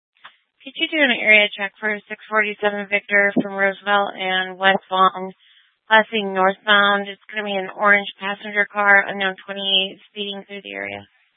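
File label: Tempe police department, scanner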